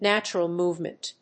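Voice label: "natural movement", English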